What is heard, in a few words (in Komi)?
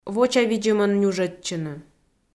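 вочавидзӧмӧн нюжӧдчыны, Komi, female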